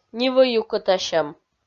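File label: "нивӧю кӧтасьӧм", Komi, female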